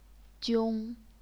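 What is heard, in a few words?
"jiong", Chinese, first tone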